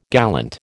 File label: "gallant", American